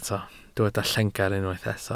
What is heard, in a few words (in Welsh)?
"llengar" Welsh